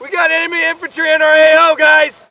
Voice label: spot; bf3